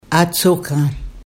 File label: "aadsookaan" pronunciation; related words